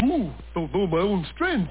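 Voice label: "Strength", speech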